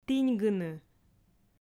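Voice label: "тіньгыны", Komi, female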